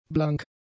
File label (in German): "blank", German; adjectives